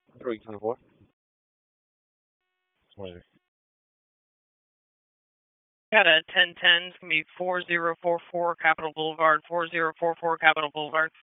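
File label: Raleigh Police Northeast District Dispatch, p25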